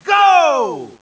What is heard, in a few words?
narration; kof